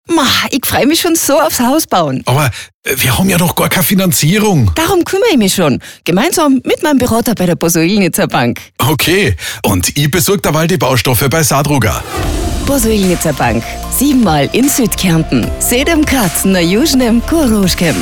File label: SPOT; Zadruga Posojilnica